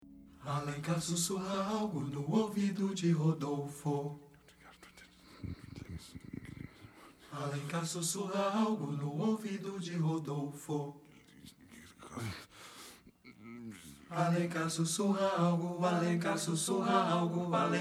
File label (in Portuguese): Sussurro; as sete caras da verdade